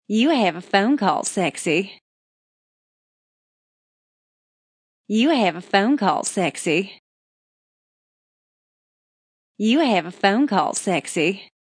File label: Female Phonecall Sexy Southern; Ringtone